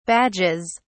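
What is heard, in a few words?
"badges", British English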